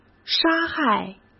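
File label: "杀害", Mandarin Chinese; hsk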